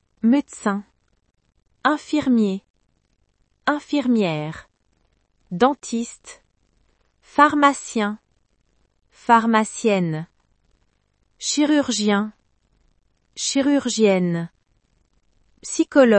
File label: job in french